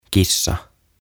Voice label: "Kissa" "Cat"

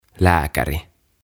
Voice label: "Lääkäri" "Doctor"

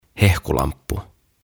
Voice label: "Hehkulamppu", "Bulb"; OTAVA KONSERNI

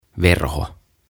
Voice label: "Verho", "Curtain"

